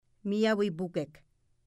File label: "miawpukek", Mi'kmaw